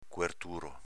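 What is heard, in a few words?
"cuerturo", dialeto veneto; cuertùro s.m. copriletto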